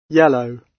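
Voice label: "yellow", British English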